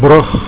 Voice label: "brug", Afrikaans